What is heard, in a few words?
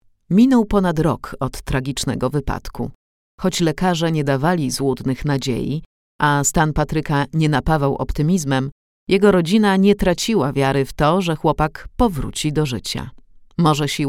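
voice female narration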